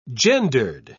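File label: "gendered", British English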